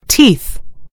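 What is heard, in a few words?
"teeth", British English; gcompris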